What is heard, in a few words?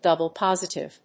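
"double-positive", English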